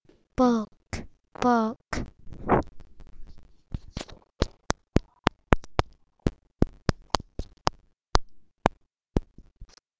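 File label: "book", spoken word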